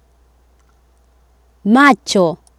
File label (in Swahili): "macho" Swahili